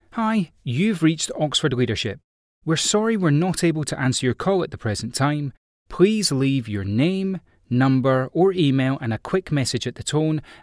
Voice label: answer phone, ivr read; Oxford leadership